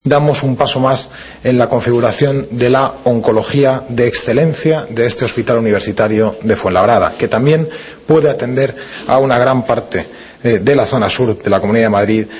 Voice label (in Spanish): noticias